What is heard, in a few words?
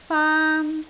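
"faan1", Cantonese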